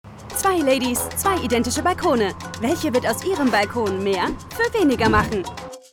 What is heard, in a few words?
commercial, German, female